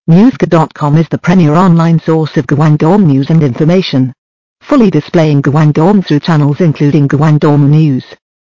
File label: 英语女